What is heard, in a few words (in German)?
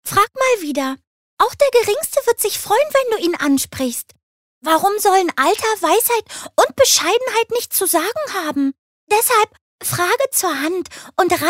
Charge Comic